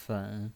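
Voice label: "fen" Mandarin Chinese, third tone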